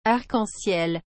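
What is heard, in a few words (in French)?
"arc en ciel", French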